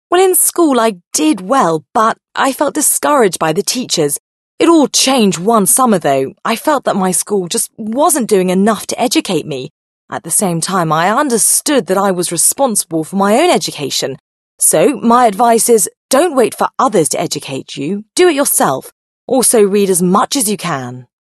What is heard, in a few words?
british female